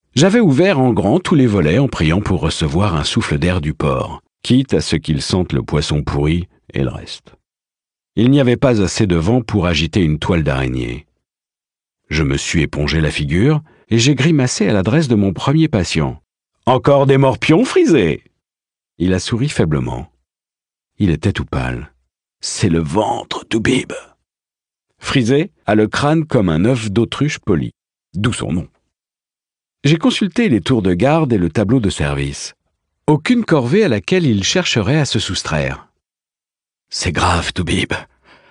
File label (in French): Livre audio; Les Annales de la Compagnie Noire; Glen Cook